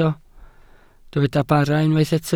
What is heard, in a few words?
"bara", Welsh